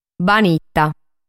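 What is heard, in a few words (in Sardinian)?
"Banita", Sardinian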